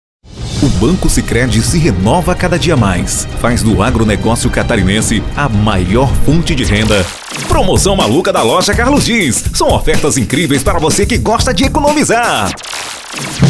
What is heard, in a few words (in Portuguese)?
locutor chamadas offs spot propaganda comerciais